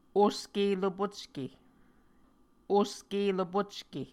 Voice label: "oske lopocke", Muscogee

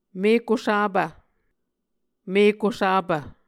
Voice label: "mekusapv", Muscogee

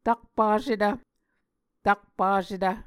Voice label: "takpasetv", Muscogee

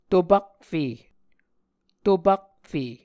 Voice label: "topakfe", Muscogee

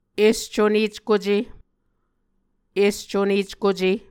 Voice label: "escuneckuce", Muscogee; Mvskoke Words with Voicing Consonants; Onvkv Ohhvyayices

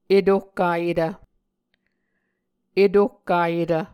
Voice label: "etohkayetv", muscogee

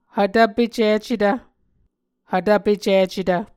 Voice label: "hvtvpecicetv", Muscogee

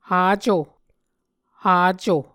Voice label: "haco", muscogee

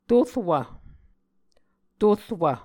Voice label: "torwv", Muscogee

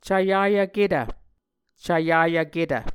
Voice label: "cvyayvketv", muscogee

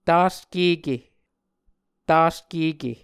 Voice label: "Taskeke", muscogee

